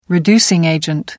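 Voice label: "reducing agent", English, British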